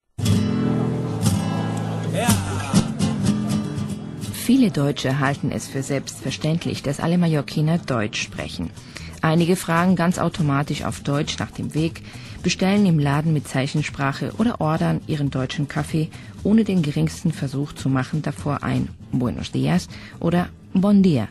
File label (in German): mallorca radio, frauen aus aller welt